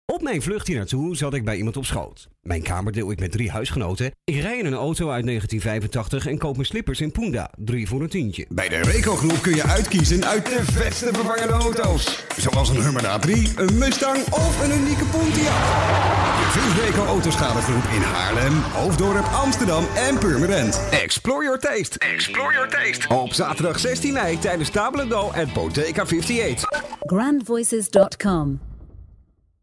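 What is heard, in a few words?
DUT M, Dutch male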